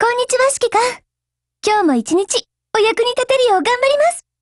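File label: Japanese, Voice; GF Alarm; STG44Mod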